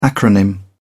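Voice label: "acronym", British English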